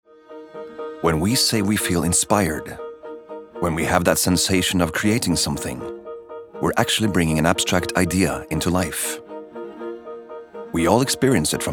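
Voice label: Norwegian English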